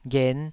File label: "ghen5", Taiwanese syllable